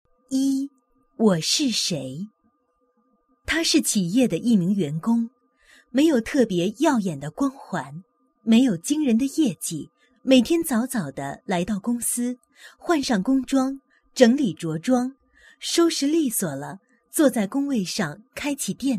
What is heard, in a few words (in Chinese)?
女-企业宣传; 天津分公司出单中心风采